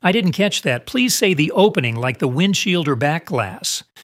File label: glass retry, prompts